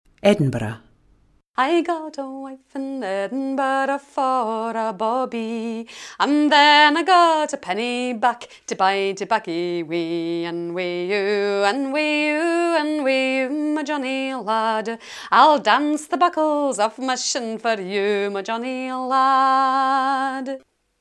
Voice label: Edinburgh, scotspeak